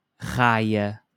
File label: "raia", portuguese